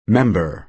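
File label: "member", American English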